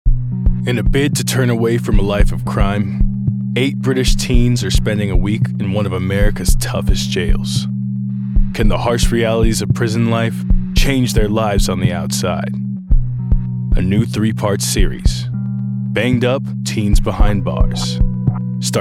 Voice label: Promo